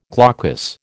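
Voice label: "glaucous", American English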